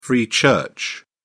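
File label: "free church", British English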